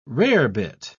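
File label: "rarebit", British English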